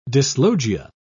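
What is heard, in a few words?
"dyslogia", British English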